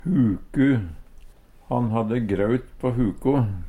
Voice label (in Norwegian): huku, Numedalsmål; Han hadde graut på huko.